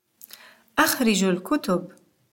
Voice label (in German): buecher heraus, Arabisch; Interkultur; LearningApp